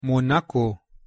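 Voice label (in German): "monaco", German; pronunciation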